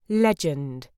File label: "legend", uk